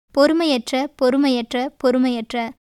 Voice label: Tamil